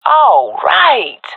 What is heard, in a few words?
cheer; vo; Counter Strike Model Pack; CS:GO; swat epic